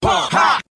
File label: "po ha", voice